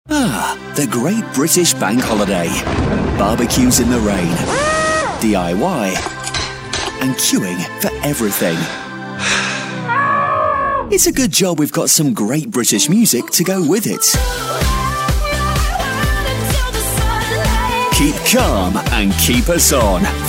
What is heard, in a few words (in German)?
Eng UK Werbung Lebendig